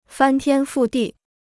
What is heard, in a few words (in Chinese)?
"翻天覆地", Chinese